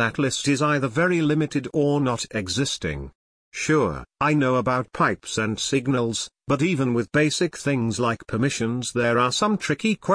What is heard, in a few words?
english british linux